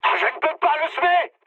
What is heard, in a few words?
aircraft damaged mood high, speech fr